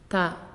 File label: "Fish", Naasioi